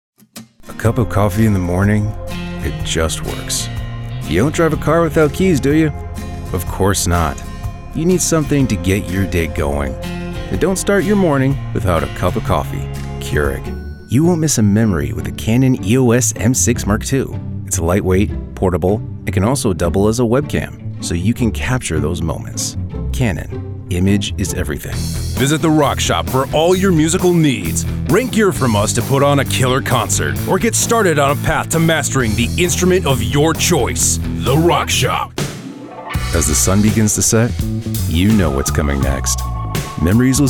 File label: Commercial